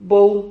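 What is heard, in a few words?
"bou", Spanish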